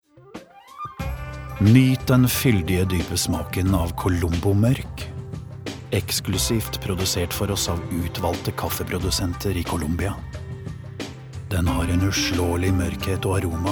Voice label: no soft sell

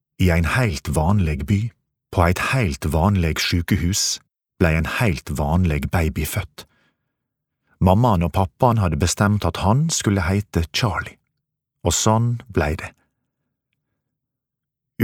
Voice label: no audiobook children sunnmorsk

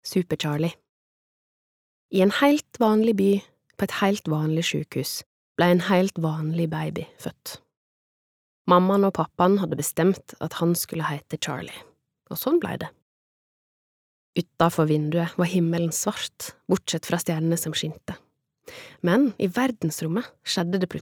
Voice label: Norwegian barnebok, female

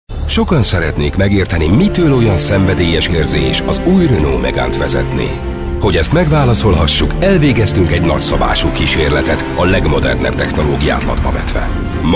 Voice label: hu commercial

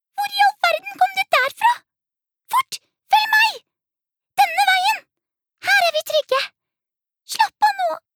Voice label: no dub character

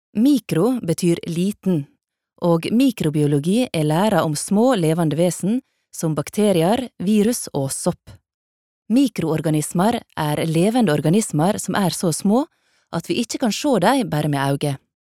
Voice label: no nynorsk sunnmore, norsk kvinne

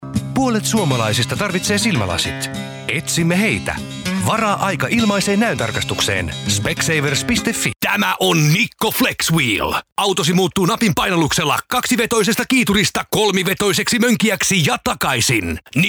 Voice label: fi commercial

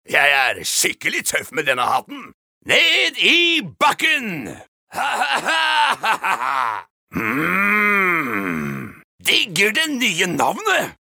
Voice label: no character tough

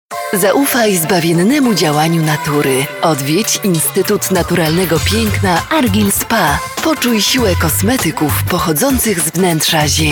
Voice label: pl commercial

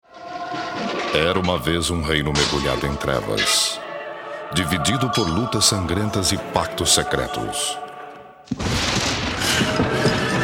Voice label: br promo

